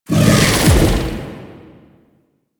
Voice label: Howl End; Warframe; Voruna